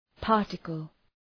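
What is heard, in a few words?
"particle", English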